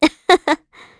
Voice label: Vox Happy kr; heroes; Hilda; kingsraid